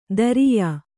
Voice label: "ದರಿಯಾ", Kannada